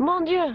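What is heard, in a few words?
"mon dieu", French; BALLY; CORVETTE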